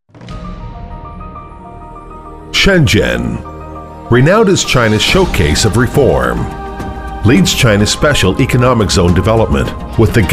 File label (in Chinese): 男